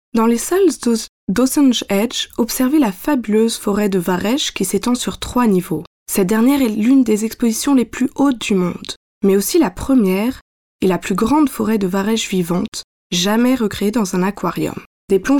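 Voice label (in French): French, female, narration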